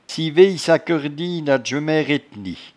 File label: "civeyesakeurdie", patois jura